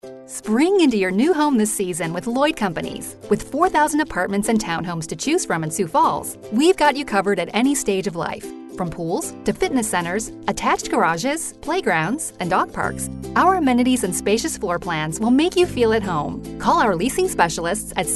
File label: Radio